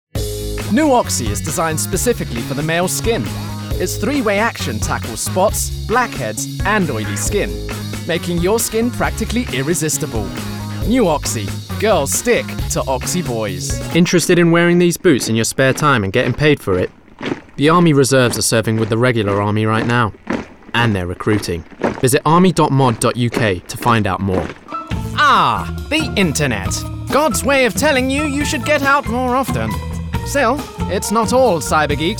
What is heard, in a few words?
Commercial